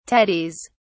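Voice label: "tatties", British English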